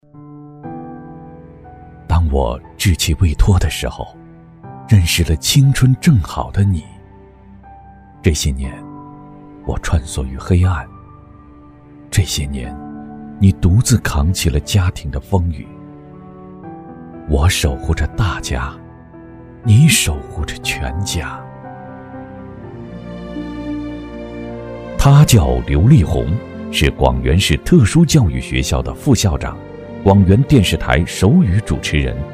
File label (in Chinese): 男国 专题 人物 抒情人物专题 成熟